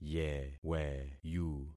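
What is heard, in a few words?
"yeweyu", concatenated syllables; Affixing experiment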